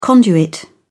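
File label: "conduit", British English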